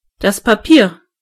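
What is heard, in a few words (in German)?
"paper", German; gcompris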